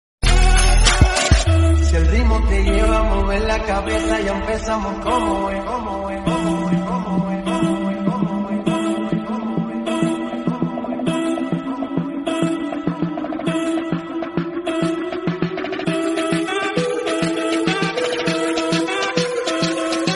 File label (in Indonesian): Suara Google Ada Pesan Masuk